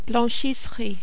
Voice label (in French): "blanchisserie", French